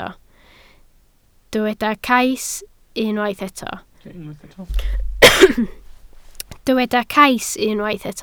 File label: "cais", Welsh